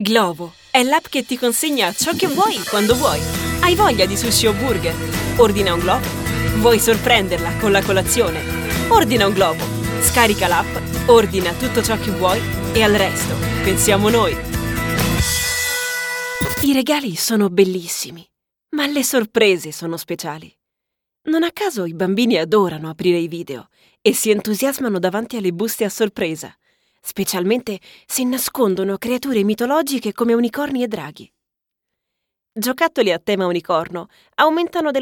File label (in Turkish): Italyanca Kadin; seslendirme